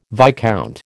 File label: "viscount", American